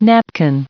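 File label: "napkin", US English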